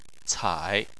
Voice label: "cai3", chinese